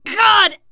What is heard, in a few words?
horde attack, teen girl; player; survivor; voice